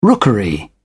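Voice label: "rookery", English, British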